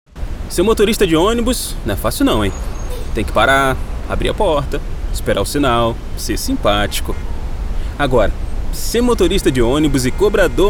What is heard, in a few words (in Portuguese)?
COLOQUIAL CONVERSADO TEATRAL; locutor